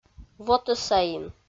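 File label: "вотӧсаин", Komi, female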